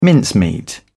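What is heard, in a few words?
"mincemeat", British English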